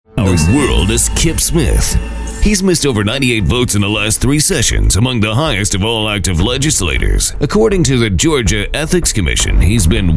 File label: US English Male Political